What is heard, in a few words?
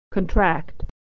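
"to contract", American English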